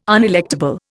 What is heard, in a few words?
"unelectable", Indian